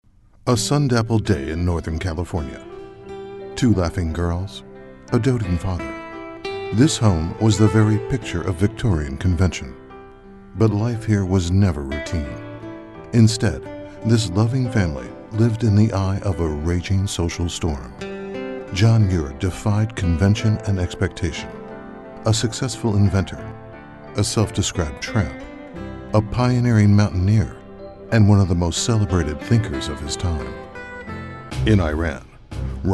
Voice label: narration